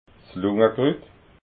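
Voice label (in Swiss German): "lungakrut", Alsatian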